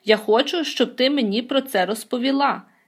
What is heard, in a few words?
dialogue, Ukrainian